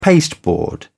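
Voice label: "pasteboard", British English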